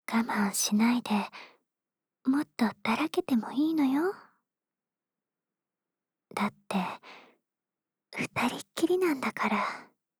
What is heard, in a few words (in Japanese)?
ささやきボイス; ダラけてもいいのよ？; 憂妃の共依存癒やしささやきボイス